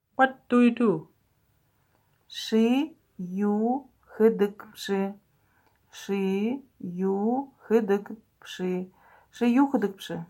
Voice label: What do you do, buryat